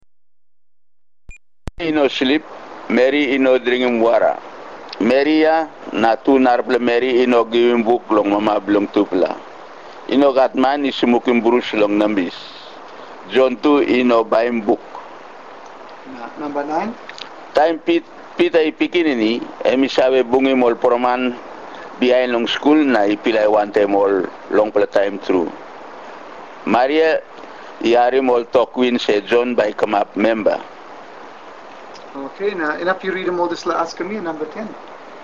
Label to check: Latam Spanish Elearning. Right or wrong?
Wrong